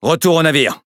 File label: Speech Pilots Bomber Land, FR; World Of Warships